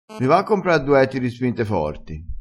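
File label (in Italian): "mi va", dialettale crespinese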